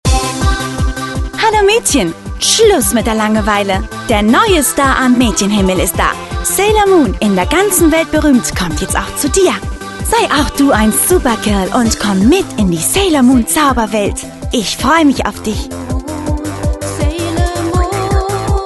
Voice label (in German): dt. Werbung Teenie